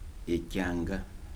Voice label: "time", Kibembe